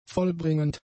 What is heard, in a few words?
"vollbringend", German; adjectives